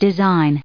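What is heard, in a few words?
"design", US English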